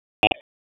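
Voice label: Raleigh Police North District Dispatch, p25; wake p25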